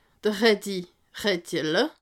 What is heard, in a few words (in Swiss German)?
"raddi", alsace